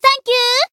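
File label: Voice healed, Japanese; yazuki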